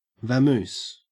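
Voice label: "vamoose", Australian English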